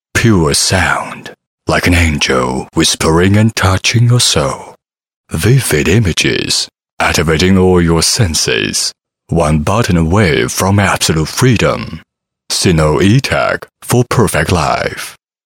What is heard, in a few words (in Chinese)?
男英 外语 国内英语 广告音; SinoEtec